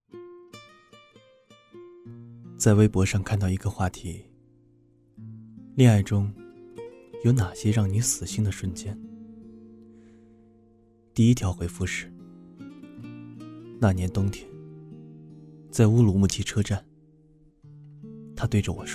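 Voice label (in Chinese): 内心独白, 男; 恋爱中让人死心的瞬间; putonghua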